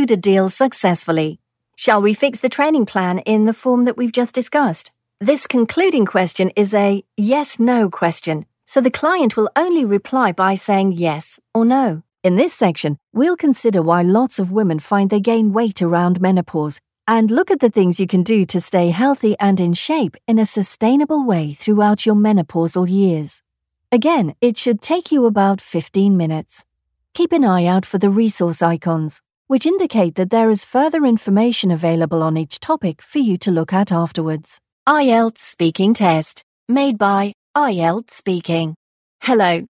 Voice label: e-learning mixed